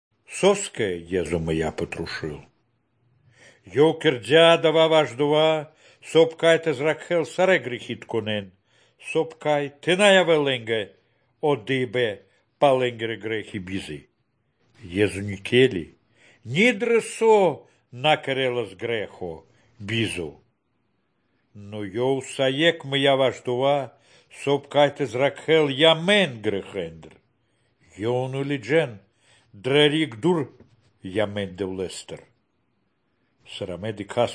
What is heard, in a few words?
good news baltic romani